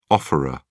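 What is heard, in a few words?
"offeror", British English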